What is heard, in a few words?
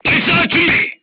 voice message reloading, korean, crew tanks